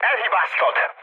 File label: bomb failure, speech hun; War Thunder